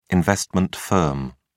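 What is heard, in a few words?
"investment firm", British English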